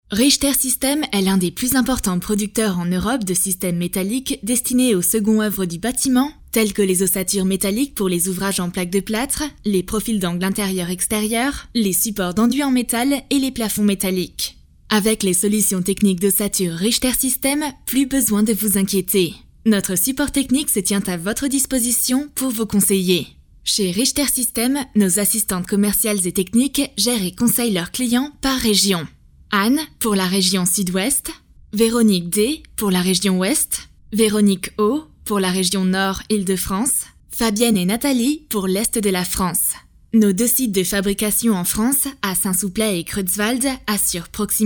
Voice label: French